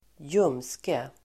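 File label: "ljumske", Swedish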